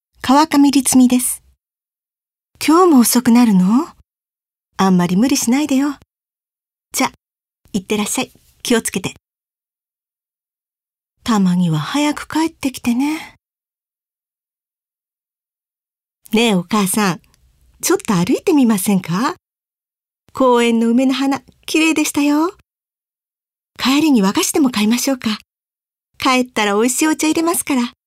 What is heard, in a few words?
drama